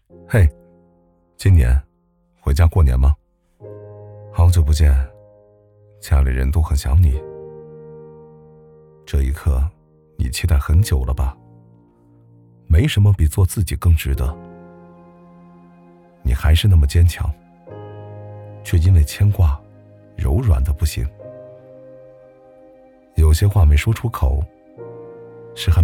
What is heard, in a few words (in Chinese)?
走心广告嘿今年回家过年吗